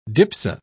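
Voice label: "dipso", British English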